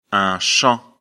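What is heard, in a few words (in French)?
"champ", French; afrikalan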